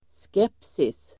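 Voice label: "skepsis", Swedish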